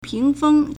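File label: "ping2feng1", Mandarin Chinese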